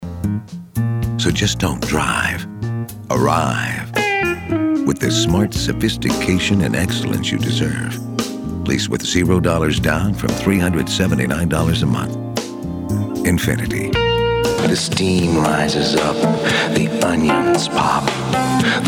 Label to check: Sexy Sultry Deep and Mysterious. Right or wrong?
Right